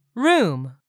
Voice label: "room", English; 3000 words